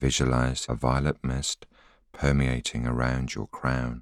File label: voiceover